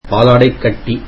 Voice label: tamil cheese